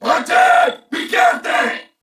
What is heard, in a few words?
Player Spotted, Corpus Venus Male; Warframe; CorpusVenusMaleA